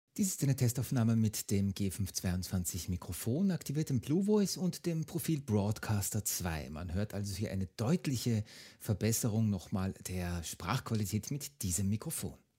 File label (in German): Mikrofontest Broadcaster; logitech g522; logitech g522 review